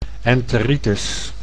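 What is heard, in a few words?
"enteritis", Dutch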